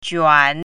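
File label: "juan3", Chinese pinyin, third tone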